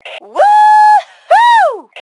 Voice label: Woohoo, Female; T2 Female 01